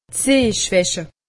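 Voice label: "sehschwache", Alsatian; medecine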